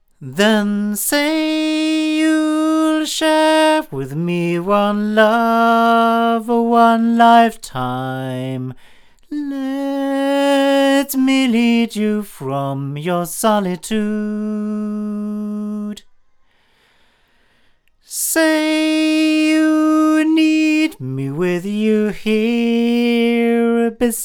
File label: male; Lauten Horizon; microphones